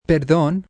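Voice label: Pardon Spanish M, male, Spanish; Voice Box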